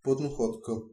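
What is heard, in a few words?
rubberduck, Bulgarian; household; tuxpaint-stamps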